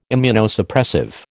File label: "Immunosuppressive", American